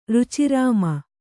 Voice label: "ರುಚಿರಾಮ", Kannada

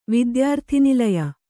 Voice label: "ವಿದ್ಯಾರ್ಥಿನಿಲಯ", kannada

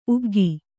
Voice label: "ಉಗ್ಗಿ", Kannada

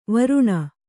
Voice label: "ವರುಣ", kannada

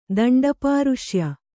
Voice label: "ದಂಡಪಾರುಷ್ಯ", Kannada

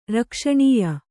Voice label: "ರಕ್ಷಣೀಯ", kannada

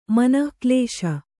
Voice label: "ಮನೆಗೆಲಸ", Kannada

